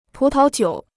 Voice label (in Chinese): "葡萄酒", Chinese